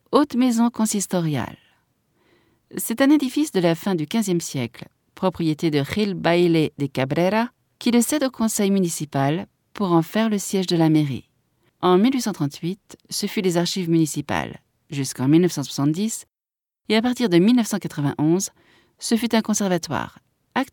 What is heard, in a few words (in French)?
audioguia, frances; audioguia baeza